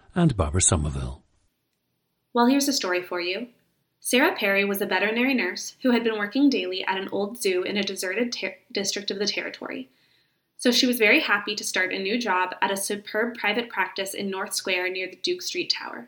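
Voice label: california, International Dialects of English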